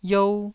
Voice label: "iou1", taiwanese syllables